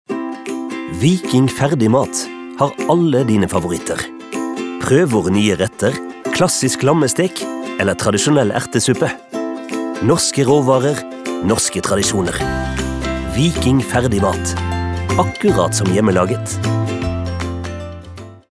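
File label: Norwegian commercial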